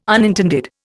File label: "unintended", Indian